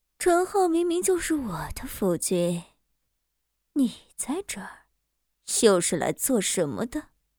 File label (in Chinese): 御姐 心机